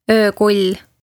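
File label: "öökull", Estonian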